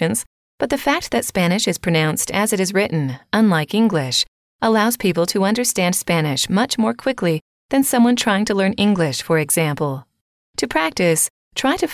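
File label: native speakers talk so fast sometimes, female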